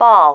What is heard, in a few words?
"ball", female